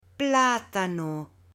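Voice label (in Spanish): "platano" Spanish, male; ALIMENTOS; AppLenguaje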